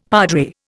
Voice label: "padre", Indian; Dictionary